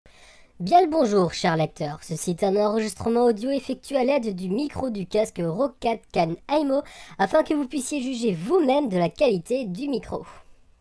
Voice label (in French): femme, test roccat khan aimo; vonguru